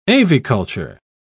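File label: "aviculture", British English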